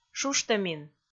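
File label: "шуштӧмин", Komi, female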